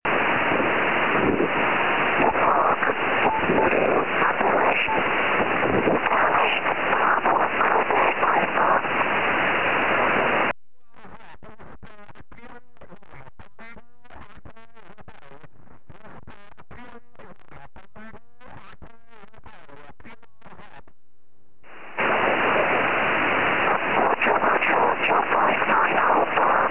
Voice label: ssb 2m